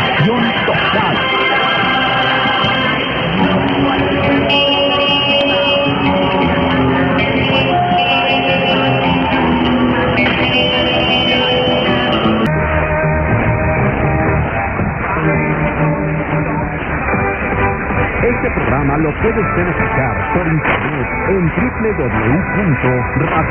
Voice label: radio SSB AM; 1470; radio formula mex